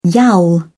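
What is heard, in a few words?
"yowl", British English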